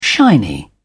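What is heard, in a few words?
"shiny", British English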